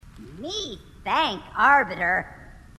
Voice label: grunt; h2dialogue; grunt crazy

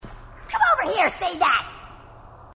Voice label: grunt; h2dialogue; grunt crazy

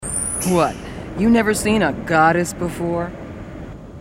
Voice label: female marine

